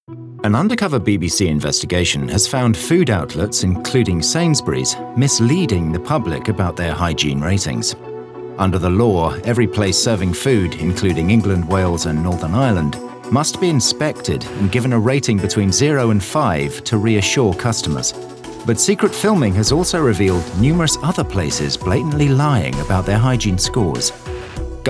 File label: BBC News Reporter, Straight, News Reporter, Informative, RP ('Received Pronunciation')